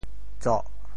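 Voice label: "tsoh4", Teochew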